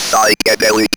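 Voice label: ROBVOX, robot voice; E-Lab; X Static Goldmine 1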